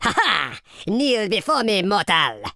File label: "excellent", Super Villain speech